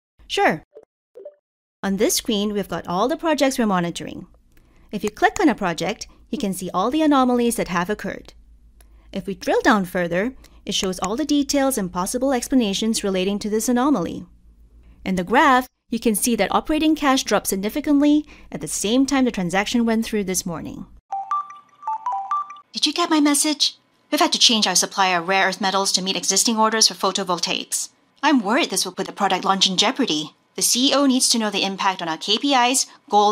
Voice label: english international animation, Animación; Una actriz de voz cálida, amigable y versátil con atractivo global.; singaporean english